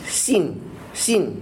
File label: xin male